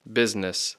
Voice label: "business", English; Speech Pro

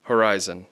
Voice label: "horizon", English

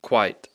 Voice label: "quite", English

